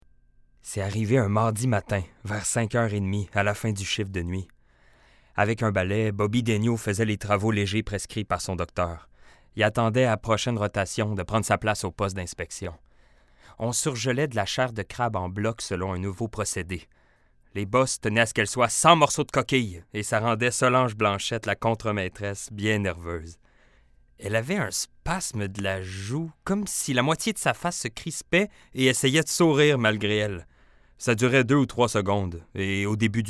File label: Narration Livre audio